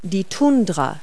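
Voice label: "tundra", English word; Multi-Lingual Talking Picture Dictionary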